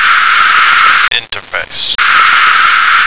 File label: "interface", American